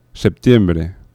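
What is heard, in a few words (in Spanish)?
"septiembre", Spanish; laboratori fonetica; oclusives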